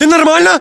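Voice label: status check, battlechatter, Russian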